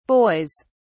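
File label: "boys", English, British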